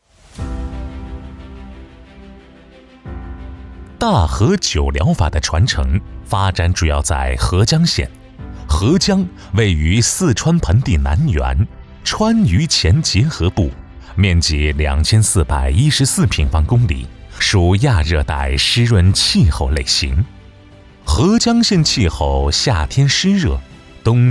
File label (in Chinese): 男 专题 大盒灸疗法非遗传承